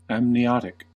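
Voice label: "amniotic", US English; pronunciations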